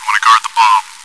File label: chitchat, bot, voice commands; svencoop